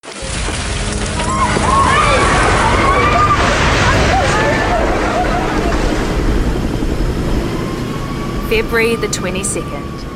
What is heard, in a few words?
explainer opening only; Celebrating 10 Years of Home